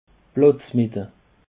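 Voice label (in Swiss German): "platzmette", Alsatian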